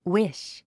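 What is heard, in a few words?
"wish", English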